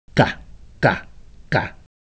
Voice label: Ewe Motion, Ideo Phones